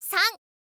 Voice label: "san", girl; voice; info girl